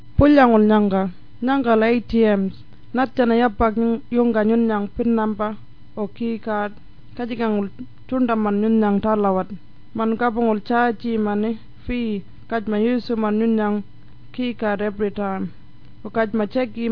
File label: warlpiri